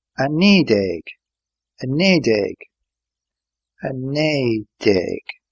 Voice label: nineteen irish